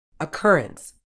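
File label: "occurrence", medical English, British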